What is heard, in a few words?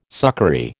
"succory", American